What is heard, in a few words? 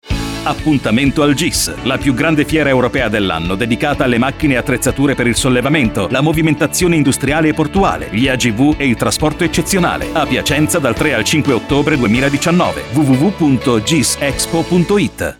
spot